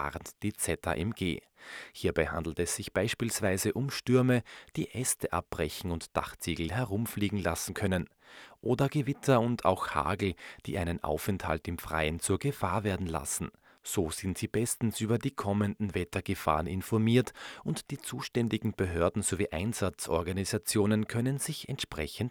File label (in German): Wettervorhersage Podcast